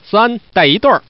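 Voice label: Man, three with a pair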